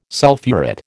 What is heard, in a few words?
"sulphurate", American